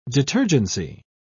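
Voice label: "detergency", British English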